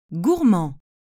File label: "gourmand", French